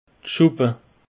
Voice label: "schuppe", alsace; peche

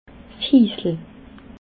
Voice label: "hisele", alsace

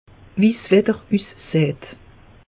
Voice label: "wetter", alsace; meteo

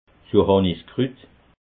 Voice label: "johanniskrut", alsace

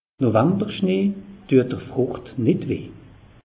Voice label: "novemberschnee", alsace; meteo

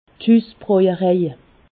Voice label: "husbrojerej", Alsace

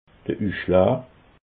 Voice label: "usschlaa", alsace

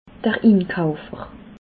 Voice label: "inkaufer", alsace

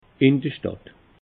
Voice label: "indrstadt", alsace

